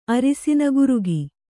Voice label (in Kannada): "ಅರಸಿನ ಗುರುಗಿ", Kannada